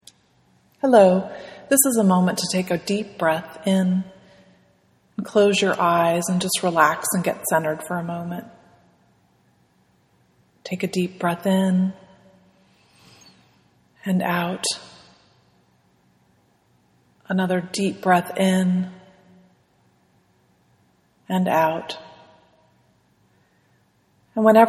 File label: Breathe Meditation